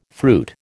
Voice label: "fruit", American